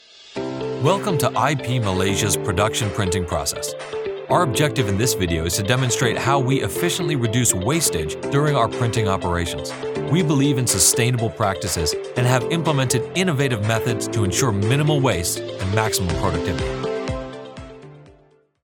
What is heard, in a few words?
Malaysia Narration